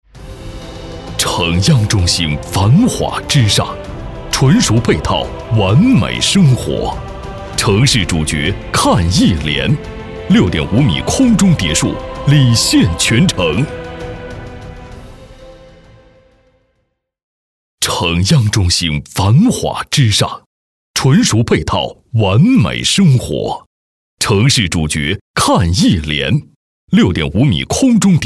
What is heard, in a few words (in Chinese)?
A男 房产宣传片